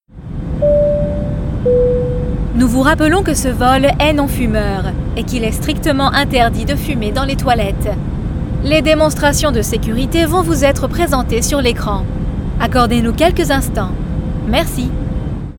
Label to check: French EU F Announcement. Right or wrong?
Right